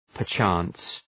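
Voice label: "perchance", English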